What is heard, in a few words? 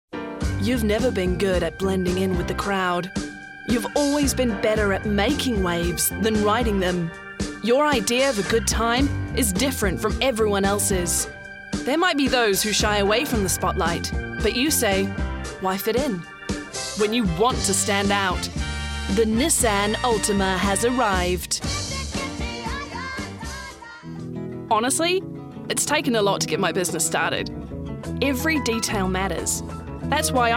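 Australian English Commercial; voice demos; GoLocalise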